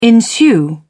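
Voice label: "ensue", British English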